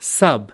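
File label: "sub", American English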